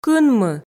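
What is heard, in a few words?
"кынмы", Komi, female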